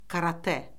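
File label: "karate", Azerbaijani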